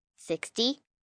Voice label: Numbers Sixty, 1 child, girl counting; Talking